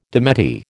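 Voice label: "démenti", American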